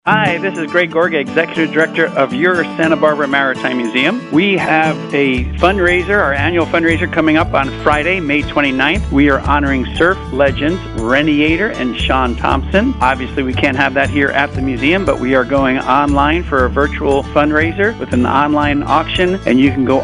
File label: PSA; Surf the Big Wave